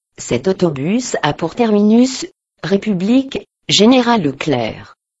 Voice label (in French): synthese vocale annonce